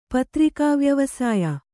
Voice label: "ಪತ್ರಿಕಾವ್ಯವ¸Áಯ", Kannada